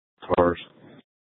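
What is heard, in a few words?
Mesa Police Department Superstition Dispatch, scanner; Mesa PD; 4 Superstition Dispatch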